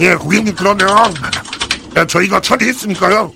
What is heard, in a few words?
heavy negative vocalization